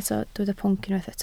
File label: "pwnc", Welsh